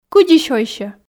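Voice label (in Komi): кыдзи сёйсьӧ, Komi, female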